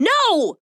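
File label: "no", English; tf; redsun; kinessa; sniper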